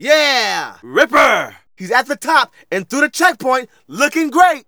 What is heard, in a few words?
Narration